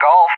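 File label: "Golf", English; JTAC; Alphabet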